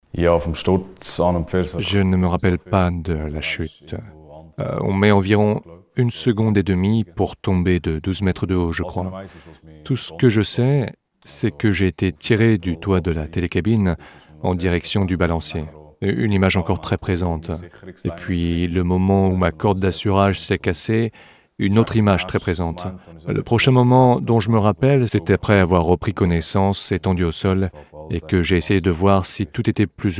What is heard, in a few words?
voice-over; French; suva matthias glarner